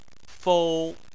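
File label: "fou" first tone, Chinese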